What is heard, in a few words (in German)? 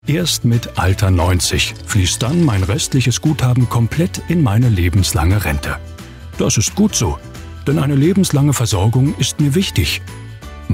Hoerprobe Erklaervideo; GENERALI